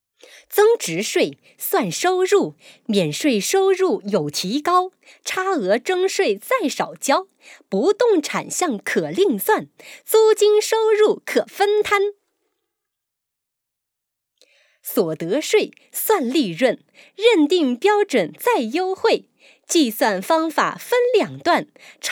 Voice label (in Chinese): 减免政策小快板